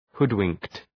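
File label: "hoodwinked", English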